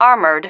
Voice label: "armoured", female